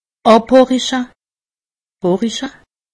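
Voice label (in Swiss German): "abhoriche", Alsatian; medecine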